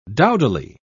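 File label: "dowdily", British English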